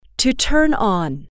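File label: "to turn on", English, female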